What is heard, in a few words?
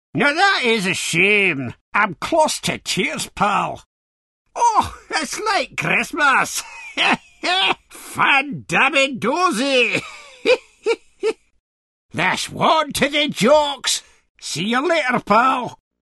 glaswegian comic